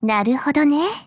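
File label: AGREE, Japanese; vee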